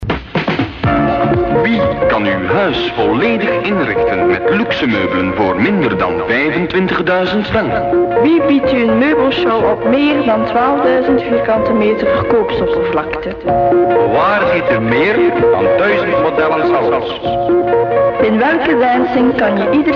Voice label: commercial